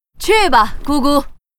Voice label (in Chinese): Huntress pissed